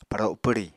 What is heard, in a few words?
"paro pwri", apatani